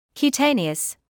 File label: "cutaneous", medical english